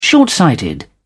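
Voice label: "short sighted", British English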